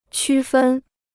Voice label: "区分", Chinese